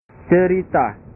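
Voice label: "cerita", Indonesian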